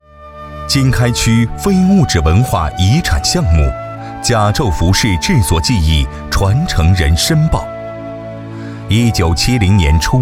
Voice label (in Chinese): 男 非遗申报 甲胄服饰制作技艺